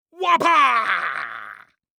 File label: Cartoon Voice "Wa Pow"; AdobeCC